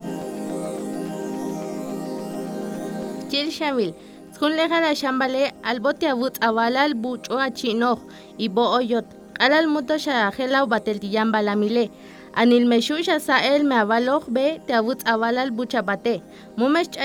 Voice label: TSOTSIL